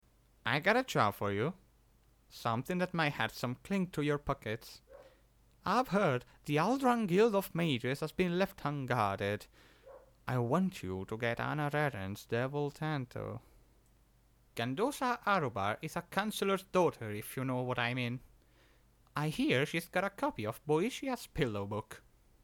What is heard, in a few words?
wood elf jeweler audition; aengoth the jeweler